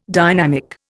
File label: "dynamic", Indian